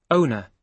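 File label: "owner", British English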